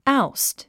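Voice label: "oust", English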